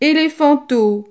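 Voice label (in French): "elephanteau", French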